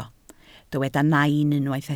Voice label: "nain", Welsh